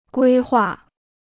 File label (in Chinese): "规划", Chinese